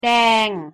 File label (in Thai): pronunciation th แดง